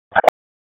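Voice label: Public Safety Tactical, p25; wake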